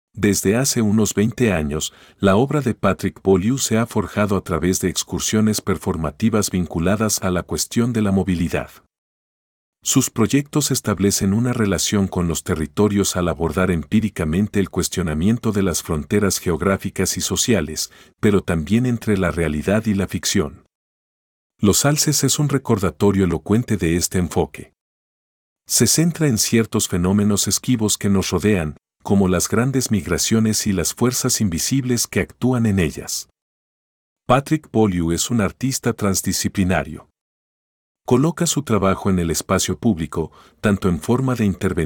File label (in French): espagnol